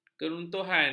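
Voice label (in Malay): "keruntuhan", Malay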